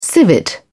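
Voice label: "civet", British English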